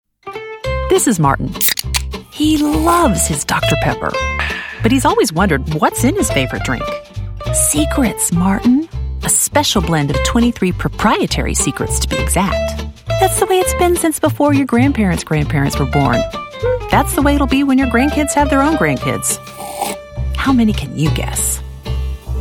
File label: Explainer Voice Over